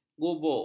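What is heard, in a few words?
"gubuk", Malay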